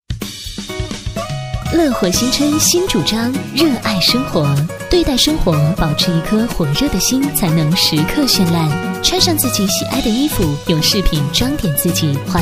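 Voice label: chinese mandarin female commercial; voice demos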